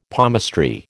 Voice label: "palmistry", American

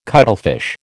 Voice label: "cuttlefish", American

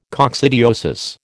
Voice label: "coccidiosis", American

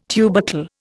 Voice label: "tubercle", Indian

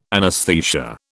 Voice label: "anesthesia", British

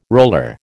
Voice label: "roller", American

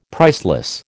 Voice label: "priceless", American

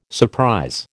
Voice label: "surprise", American

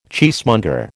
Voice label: "cheesemonger", American

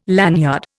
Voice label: "lanyard", Indian

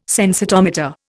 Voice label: "sensitometer", Indian

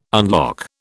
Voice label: unlock, British

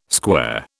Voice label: "square", British

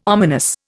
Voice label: "ominous", Indian

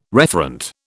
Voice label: "referent", British

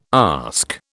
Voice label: "ask", British

